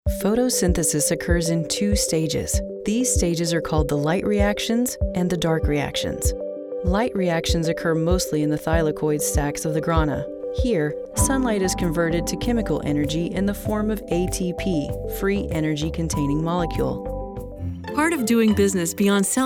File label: ELearning